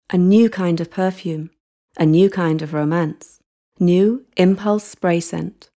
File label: Bajada, Ingles (Britanico)